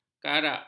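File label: "karak", Malay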